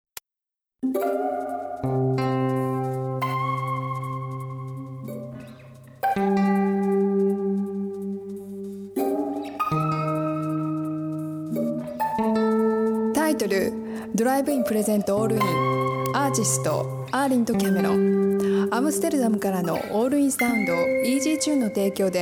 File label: Announcement; All-In